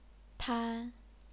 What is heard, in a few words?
"tan1", chinese pinyin